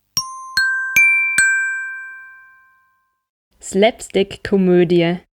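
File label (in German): "Slapstickkomoedie", German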